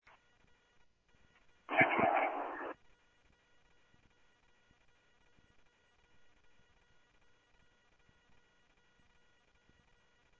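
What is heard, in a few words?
Sheriff West County, scanner audio trunk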